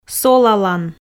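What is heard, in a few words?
"солалан", Komi, female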